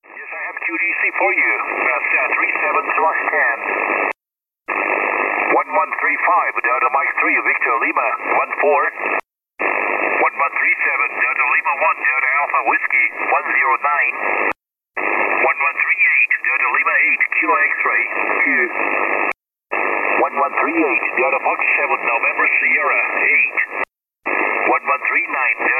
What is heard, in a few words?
ssb, contest; advanced